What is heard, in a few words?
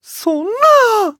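Voice Emotion Damn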